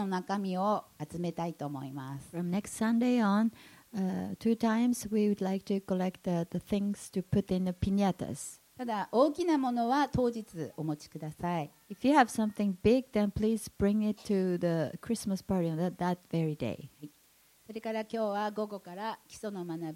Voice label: announcement; sunday message 2018